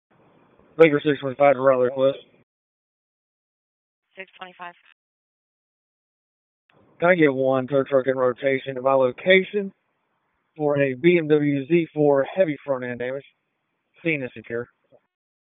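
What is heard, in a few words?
Police Southwest District Dispatch, p25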